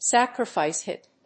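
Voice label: "sacrifice hit", English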